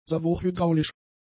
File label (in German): "servohydraulisch", German; adjectives